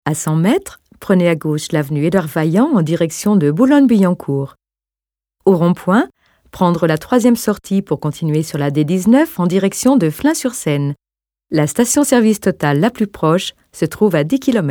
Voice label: Navigation Prompts